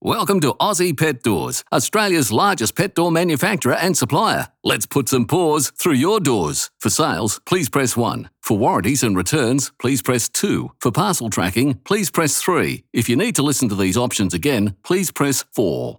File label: Phone Welcome Greeting; AUSSIE PET DOORS